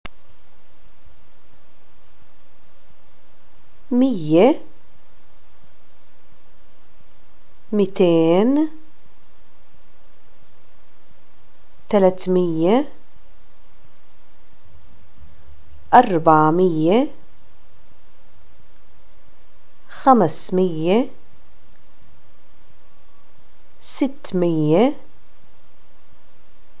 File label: numbers 10 100, arabic, pl